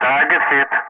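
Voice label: "target hit", English, Wingman; Ka-50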